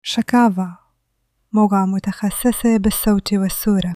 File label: tablighati, male; arabic